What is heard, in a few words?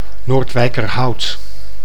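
"Noordwijkerhout", Dutch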